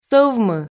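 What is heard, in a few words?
"сӧвмы", Komi, female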